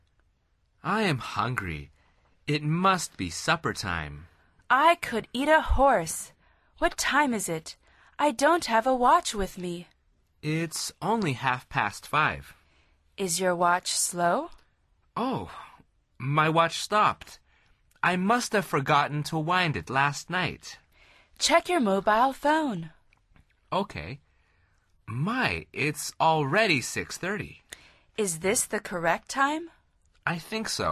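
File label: dialog, Conversación en Ingles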